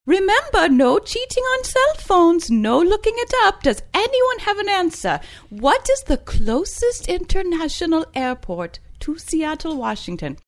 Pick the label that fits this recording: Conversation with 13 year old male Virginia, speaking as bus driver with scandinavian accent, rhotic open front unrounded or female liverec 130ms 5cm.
speaking as bus driver with scandinavian accent